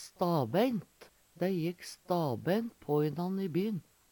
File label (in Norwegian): stabeint, Numedalsmål; uventa, rett på; Adverb